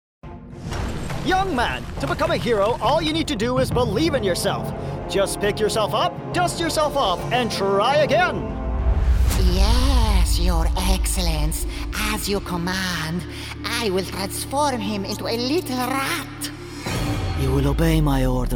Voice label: male arabic and english usa multiple accents animation and gaming